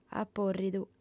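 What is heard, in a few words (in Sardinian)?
"apòrridu", lingua sarda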